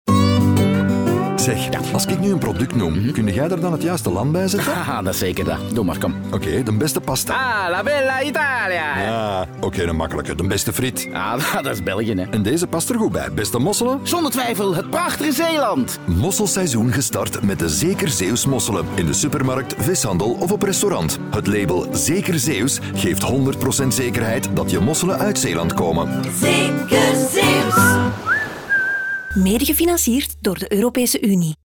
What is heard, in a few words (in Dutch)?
Radio commercial